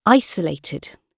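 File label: "isolated", British English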